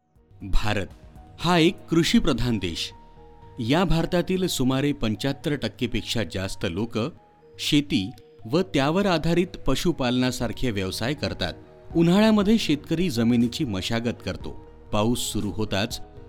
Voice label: Narration marathi

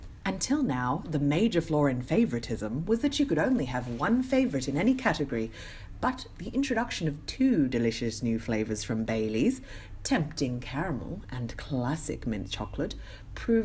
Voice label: British

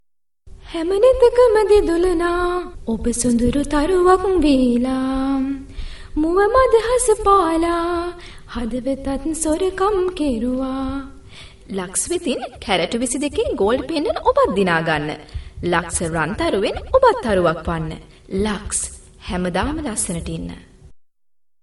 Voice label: promo sinhala

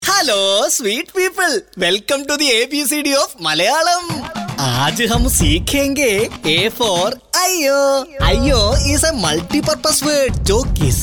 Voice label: MALAYALI COMEDY HINDI PROMO

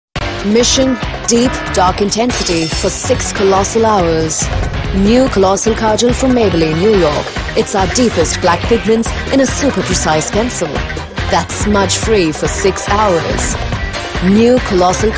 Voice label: English Brand Commercial

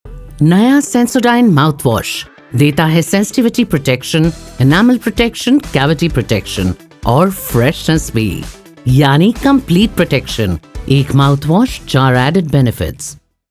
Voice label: promo, Hindi; sensodyne

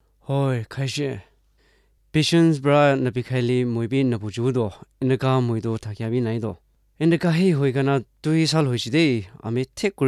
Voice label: Male

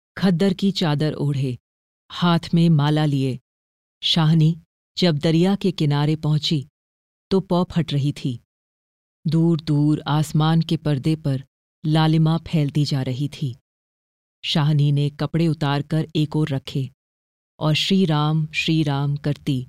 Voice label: narration, hindi

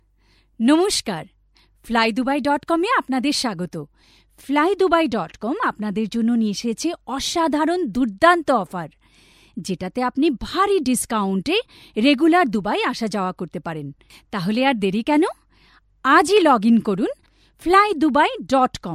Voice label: Bengali

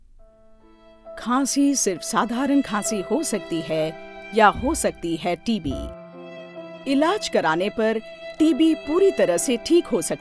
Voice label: docu hindi

